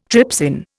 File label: "trypsin", Indian